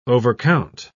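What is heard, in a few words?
"overcount", British English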